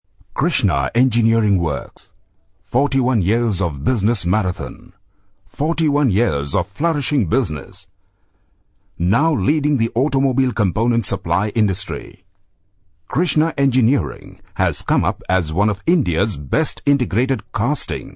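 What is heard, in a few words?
English Indian Male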